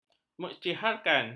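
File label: "mengisytiharkan", Malay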